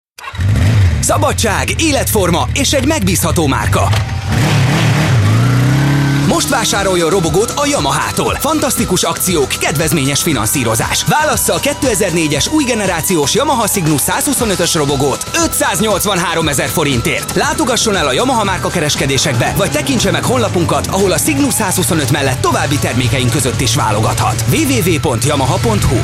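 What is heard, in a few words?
hungarian male voice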